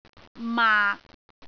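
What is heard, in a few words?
"maak6", Cantonese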